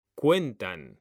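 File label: "CUENTAN", Spanish; mekanta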